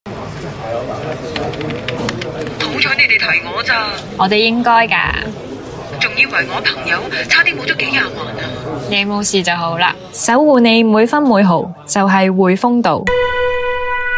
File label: Cantonese Voice Sample; HSBC